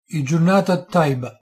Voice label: nice day, Maltese